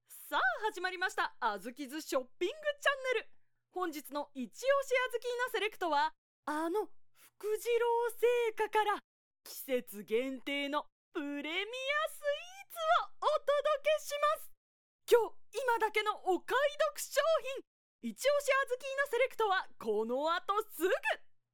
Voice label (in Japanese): 広告サンプル 通販番組